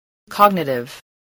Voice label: "cognitive", voice